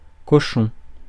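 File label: "cochon", French; Mots francais phonemes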